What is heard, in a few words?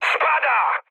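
Polish aircraft destroyed mood high; War Thunder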